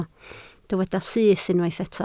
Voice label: "syth", Welsh